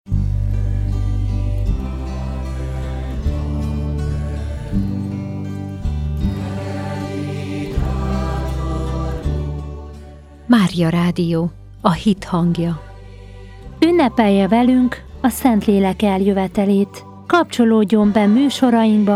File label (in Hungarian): SPOT PUNKOSD; Punkosdi szpotok; Spot altalanos Punkosdi